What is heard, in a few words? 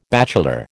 "bachelor", American